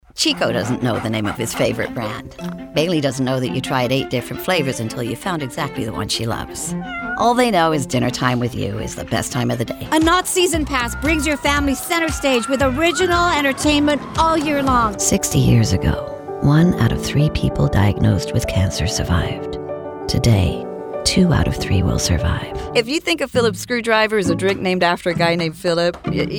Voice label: COMMERCIAL, Commercial Demo